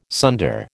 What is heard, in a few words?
"sunder", American